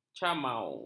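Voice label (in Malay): "camau", Malay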